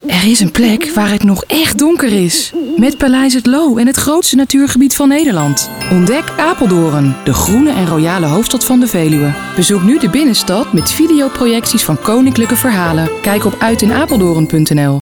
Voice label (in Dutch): Kerstcommercial; Apeldoorn; Uit in Apeldoorn